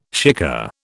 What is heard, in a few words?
"shikar", British